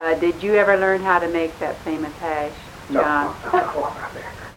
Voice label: Oral history with 54 year old black female Grand Junction Colorado and a 61 year old black, american english dialect